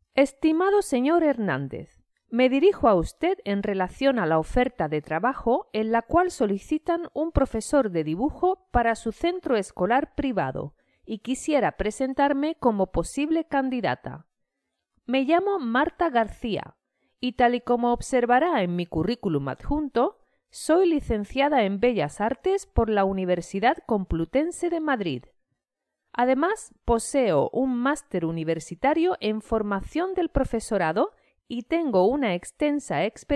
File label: Spanish reader; Escribiendo un correo formal